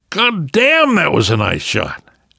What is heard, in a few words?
nice shot, namvet, survivor voice; Left 4 Dead 2; left4dead2 dlc3